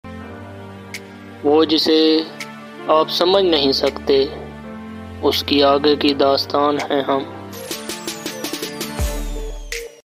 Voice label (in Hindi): Shayari Ringtone